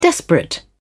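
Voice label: "desperate", British English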